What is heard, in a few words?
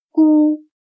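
"咕" Chinese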